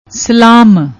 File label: "ਸਲਾਮ", Punjabi